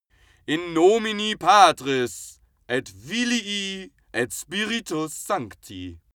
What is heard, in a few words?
male pray latin